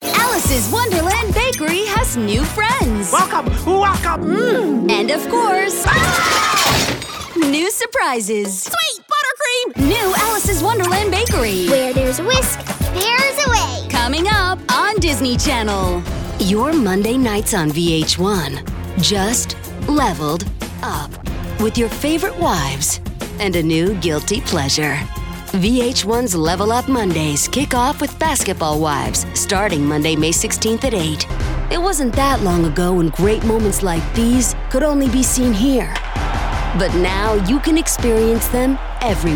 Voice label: promo women